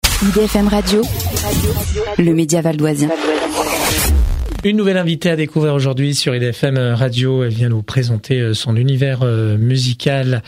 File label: PODCAST